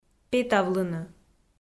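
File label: "петавлыны", Komi, female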